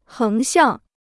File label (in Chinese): "横向", Chinese